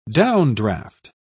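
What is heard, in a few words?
"downdraft", British English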